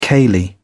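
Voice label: "ceilidh", British English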